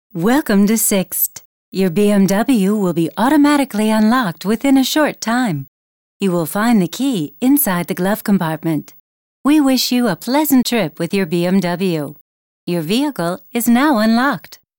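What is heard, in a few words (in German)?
American English Werbung Freundlich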